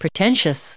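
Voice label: "pretentious", us English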